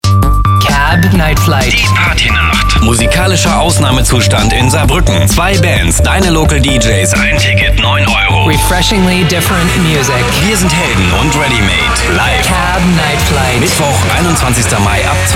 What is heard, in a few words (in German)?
Entertainment Radiowerbung